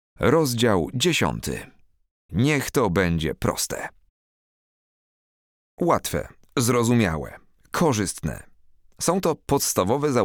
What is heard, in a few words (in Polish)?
audiobook biznes